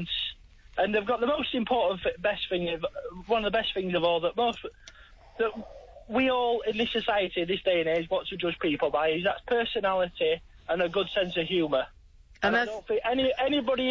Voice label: caller on why british women are better than french women